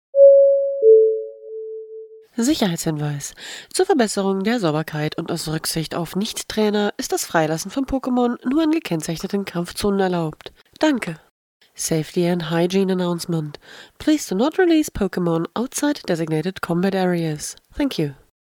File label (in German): durchsage